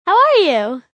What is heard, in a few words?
"How are you", Female, child; Greetings And Goodbye